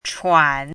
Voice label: "chuan3", pinyin

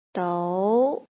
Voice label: "dou2", pinyin

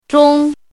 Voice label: "zhong1", pinyin

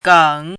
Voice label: "geng3", pinyin

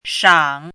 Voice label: "shang3", pinyin

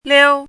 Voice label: "liu1", pinyin

